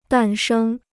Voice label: "诞生", Chinese

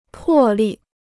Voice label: "破例", Chinese

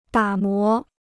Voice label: "打磨", Chinese

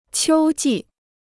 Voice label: "秋季", Chinese

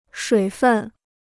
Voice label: "水分", Chinese

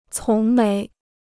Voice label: "从没", Chinese

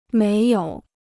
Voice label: "没有", Chinese

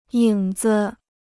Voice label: "影子", Chinese

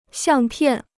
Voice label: "相片", Chinese

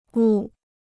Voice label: "误", Chinese

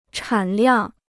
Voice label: "产量", Chinese

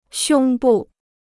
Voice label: "胸部", Chinese

